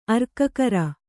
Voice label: "ಅರ್ಕಕರ", kannada

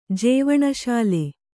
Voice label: "ಜೀವನಸಾಲೆ", Kannada

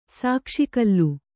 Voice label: "ಸಾಕ್ಷಿಕಲ್ಲು", Kannada

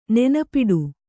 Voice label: "ನೆನಪಿಡು", Kannada; nenapiḍu; think of again.

